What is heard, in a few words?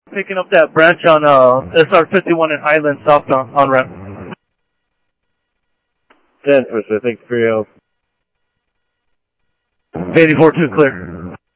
scanner audio, ADOT Landscp; ArizonaDepofTran